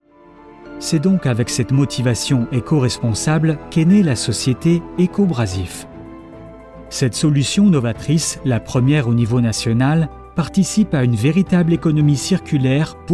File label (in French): Voix institutionelle neutre medium grave, Narration - Corporate Film; Ecobrasif